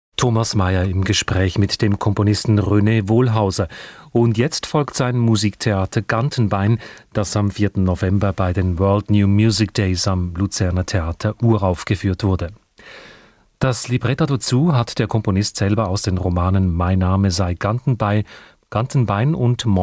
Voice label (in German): ansage zur oper